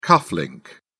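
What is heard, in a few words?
"cufflink", British English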